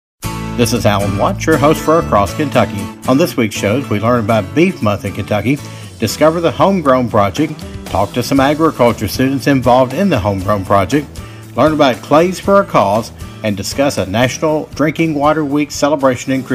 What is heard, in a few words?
promo; Across Kentucky